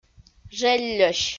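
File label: "желльӧсь", Komi, female; audio dict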